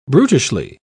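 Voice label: "brutishly", English, British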